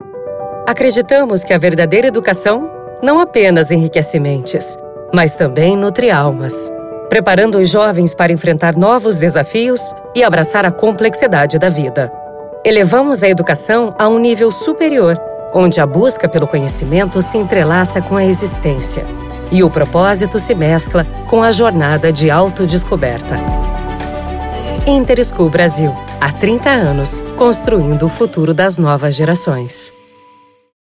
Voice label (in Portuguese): spot radio educacao institucional; interschool brasil